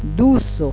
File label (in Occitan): "doca", Gascon